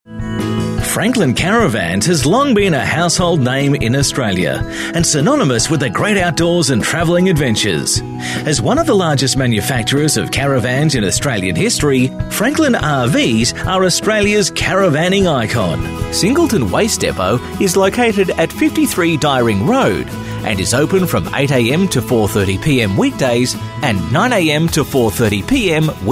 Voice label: OnHold